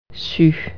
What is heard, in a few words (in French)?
"known", French